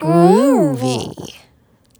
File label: "Groovy" Dry, Swingin Chiks Spoken